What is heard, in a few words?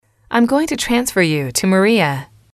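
im going to transfer you to, female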